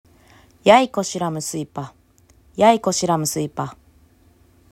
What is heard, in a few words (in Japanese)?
"ヤイコシラㇺスイパ", アイヌ語; yaykosiramsuypa; 「考える」; アイヌ語クイズ; ヤイ yay・自分 コ ko・に対して シ si・自分の ラㇺ ram・心 スイパ suypa・を何度も揺らす